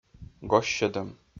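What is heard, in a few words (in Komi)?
"госсьӧдӧм", Komi, male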